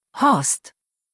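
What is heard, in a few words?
"Horst", German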